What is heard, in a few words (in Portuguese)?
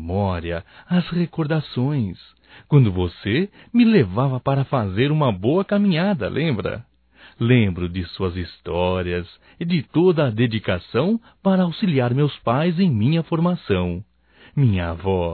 NATAL AVÓ, masc; Natal e ano novo; Telemensagens 2017